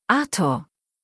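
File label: "Artur", German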